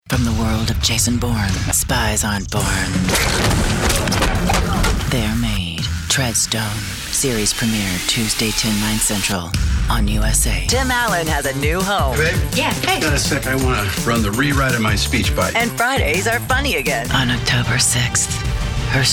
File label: Promo